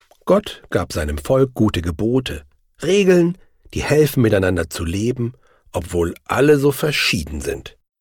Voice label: Erzaehlung

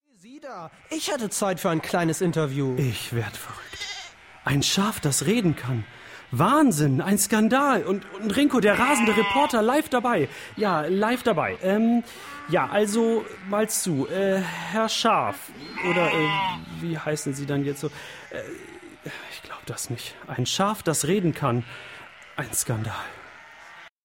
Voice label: Hoerspiel Szene

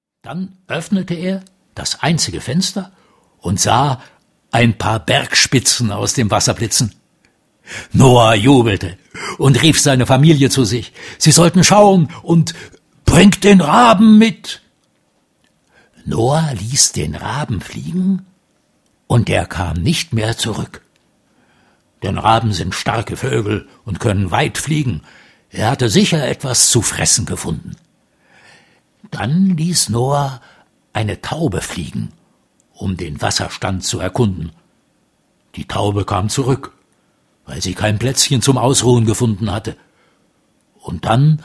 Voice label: Erzaehler

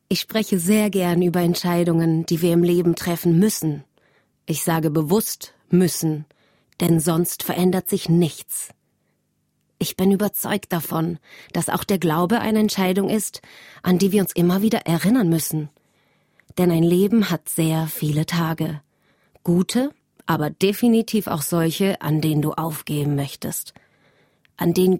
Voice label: Kommentar

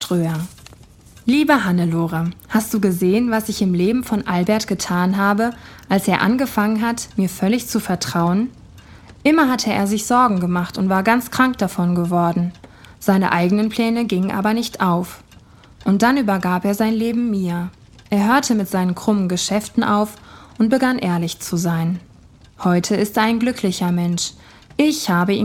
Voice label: Hoerspiel

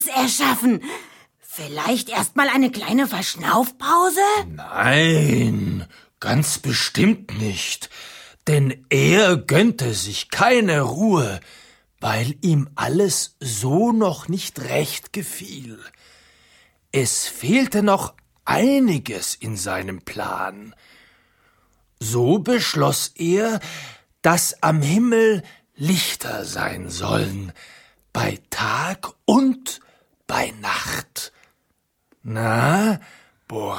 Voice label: Erzaehler

